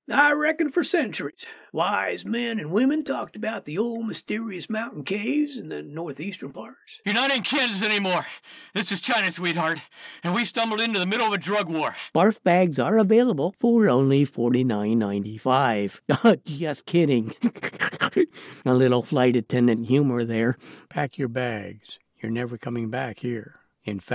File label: CHARACTER